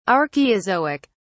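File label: "archeozoic", English, British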